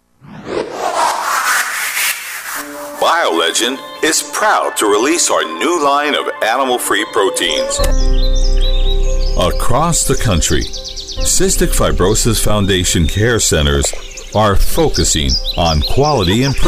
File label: narr sizzle, Voice Over Demos